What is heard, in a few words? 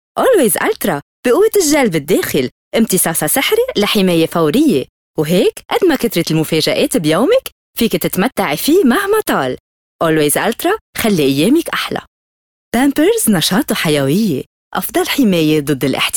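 Arabic Lebanon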